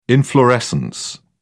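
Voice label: "inflorescence", British English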